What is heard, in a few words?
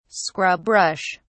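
"scrub brush", British English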